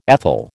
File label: "ethyl", American